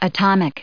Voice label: "atomic", us